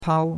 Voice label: "pao1", chinois; Cours de Chinois

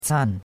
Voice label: "can4", chinois; Cours de Chinois

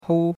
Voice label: "hou1", chinois; Cours-de-Chinois

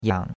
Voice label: "yang3", chinois; Cours-de-Chinois

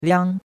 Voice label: "liang1", chinois; Cours-de-Chinois

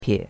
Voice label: "pie3", chinois; Cours-de-Chinois

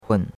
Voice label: "hun3", chinois; Cours-de-Chinois

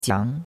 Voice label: "jiang2", chinois; Cours-de-Chinois

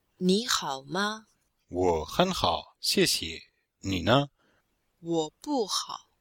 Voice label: dialg, chinois

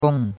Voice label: "gong4", chinois; Cours-de-Chinois

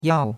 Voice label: "yao4", chinois; Cours-de-Chinois

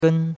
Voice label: "gen1", chinois; Cours de Chinois

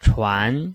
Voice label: "chuan2", chinois

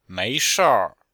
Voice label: "mei2shir4", chinois; Cours de Chinois

